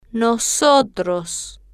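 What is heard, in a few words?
"nosotros", spanish, slow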